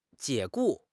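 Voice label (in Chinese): "解雇", Chinese; HSK6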